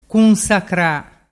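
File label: "cunsacra", Vernazzese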